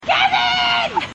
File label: Shouting